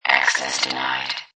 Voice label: Robot Voice "Access Denied"